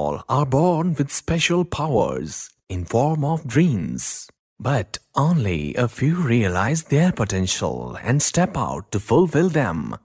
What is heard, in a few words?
male indian voice over talent sales